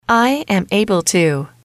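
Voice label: i am able to can, female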